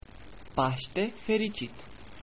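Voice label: happy easter, Romanian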